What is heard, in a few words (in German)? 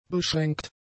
"beschränkt", German; adjectives